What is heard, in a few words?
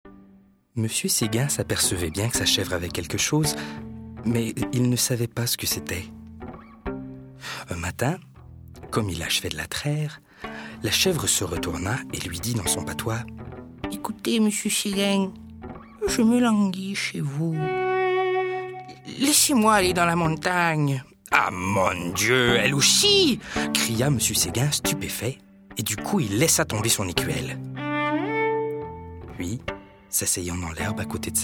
narration; mrseguin